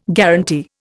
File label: "guaranty", Indian